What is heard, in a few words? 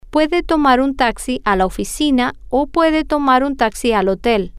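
you can take a taxi to the office or you, Spanish, female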